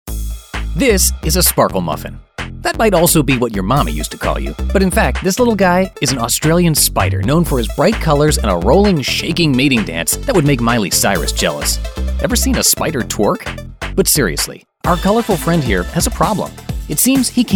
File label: Explainer Videos